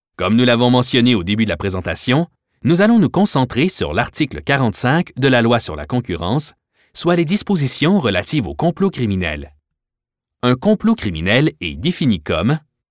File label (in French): eLearning Legal